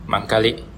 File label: maybe, bonggi